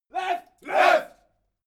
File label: military shout english "left"